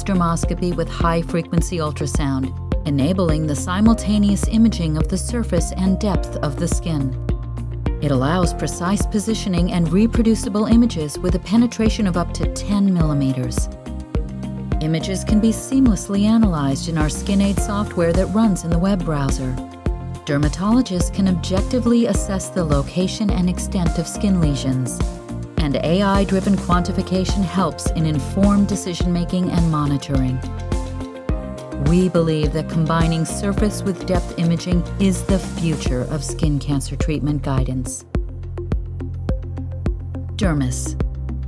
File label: medical device explainer; Cancer Treatment